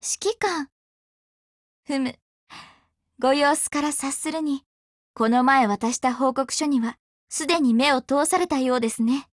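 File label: Japanese, Voice; GF Alarm; RPK203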